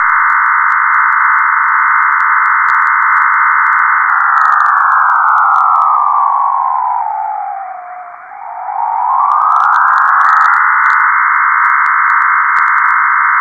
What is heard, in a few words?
FreeDV on air signal